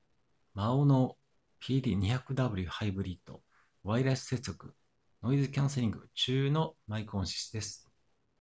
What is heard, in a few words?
maono pd200w hybrid Wireless microphone sound quality